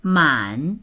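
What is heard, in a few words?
"man3", Mandarin Chinese; 满; 満ちている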